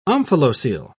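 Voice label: "omphalocele", English, British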